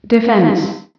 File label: "defense", female; feedback